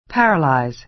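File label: "paralyze", American English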